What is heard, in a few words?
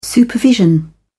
"supervision", en uk